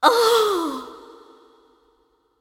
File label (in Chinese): death, zh